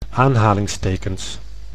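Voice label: "aanhalingstekens", Dutch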